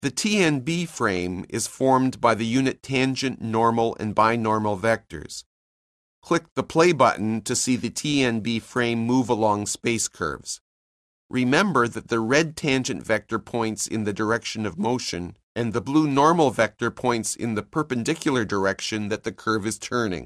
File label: narration; CALCULUS 7E; cengage